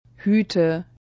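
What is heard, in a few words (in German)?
"Huete", German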